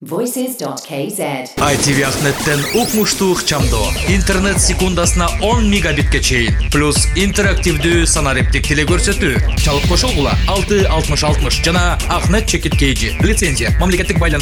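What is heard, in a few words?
Kyrgyz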